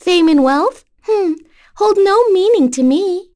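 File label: vox dia, voices heroes English; Yuria; kings raid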